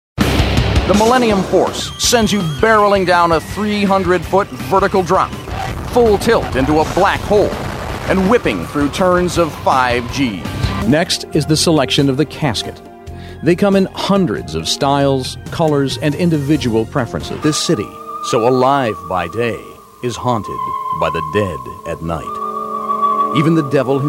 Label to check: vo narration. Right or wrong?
Right